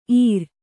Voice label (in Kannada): "ಈರ್", Kannada